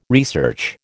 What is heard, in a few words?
"research", American